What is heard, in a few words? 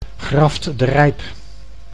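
"Graft-De Rijp", Dutch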